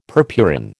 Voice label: "purpurin", American